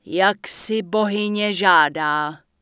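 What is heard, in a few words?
Human Female Official NPC Farewell, Czech; wowpreklad; czwow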